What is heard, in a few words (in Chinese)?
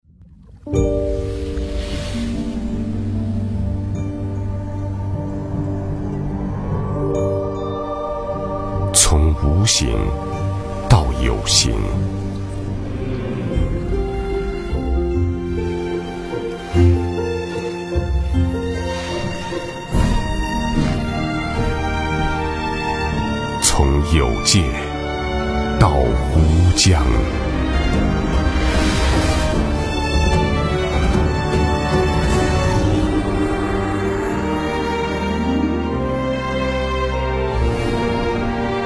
栏目 大气; 中央电视台形象片; 孙悦斌